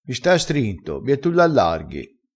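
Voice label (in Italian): "strinto", dialetto crespinese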